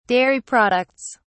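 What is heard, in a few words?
"dairy products", British English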